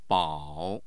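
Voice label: "bao", Chinese, third tone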